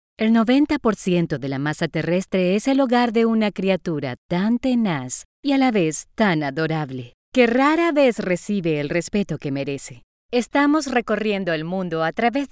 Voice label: spanish latin american documentaries

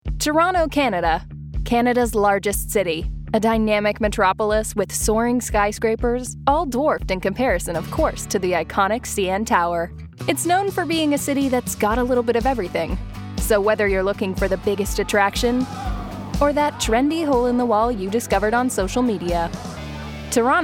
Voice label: english canadian narration

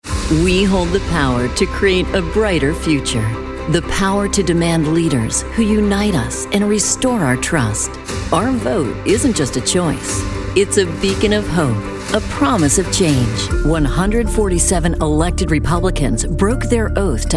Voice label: english american political ads

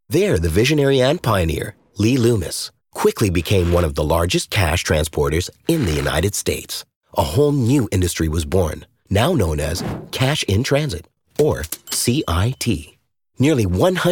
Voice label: english american corporate videos

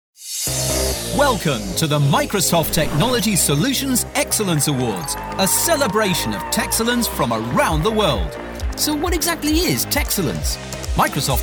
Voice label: english british announcements; multi award-nominated voice actor

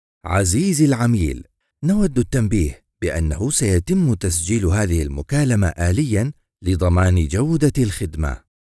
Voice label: turkish ivr

